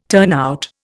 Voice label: "turnout", Indian